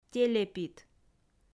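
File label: "телепит", Komi, female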